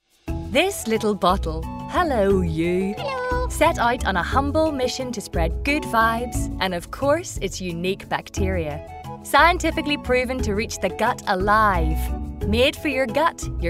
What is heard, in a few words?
irish